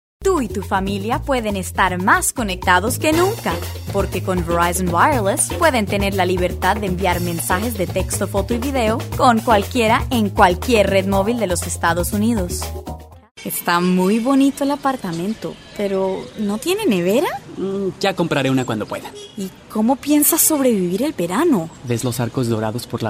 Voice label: commercial spanish women